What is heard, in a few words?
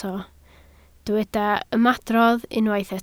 "ymadrodd", Welsh